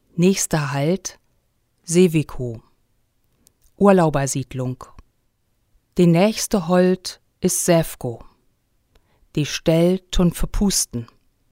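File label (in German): Busansage Sewekow Urlauber Siedlung, plattdeutschen Ansagen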